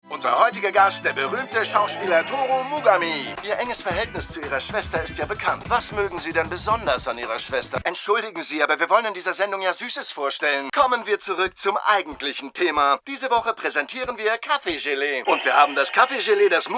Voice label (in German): Synchron Anime Showmaster; Saiki K